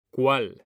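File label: "CUAL", Spanish; Mekanta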